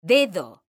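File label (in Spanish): "dedo", Spanish; mekanta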